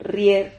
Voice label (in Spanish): "rrier", Silabas